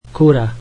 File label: chicken, slovak